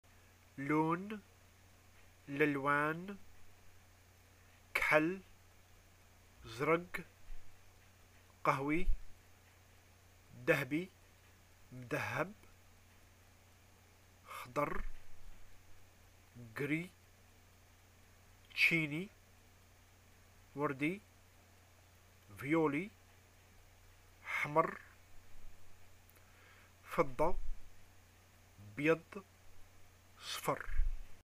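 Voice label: colors, arabic, Algerian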